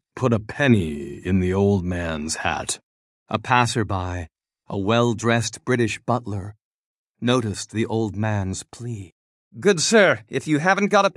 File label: Christmas is Coming, male US story